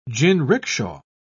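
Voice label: "jinricksha", British English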